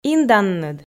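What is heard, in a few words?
"инданныд", Komi, female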